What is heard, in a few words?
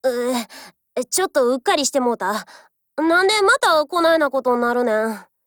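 FIX, Japanese; AR Mod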